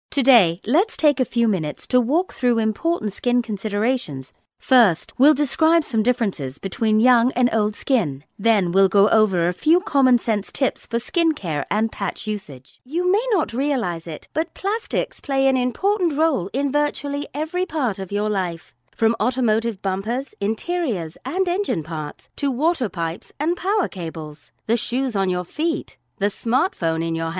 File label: e-Learning British